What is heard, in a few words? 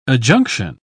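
"adjunction", British English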